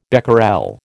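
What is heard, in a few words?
"becquerel", American English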